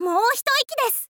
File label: "mou hitoiki desu", info girl voice